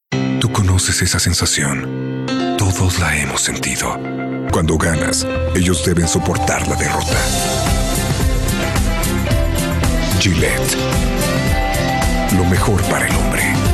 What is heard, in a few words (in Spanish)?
Demo Comercial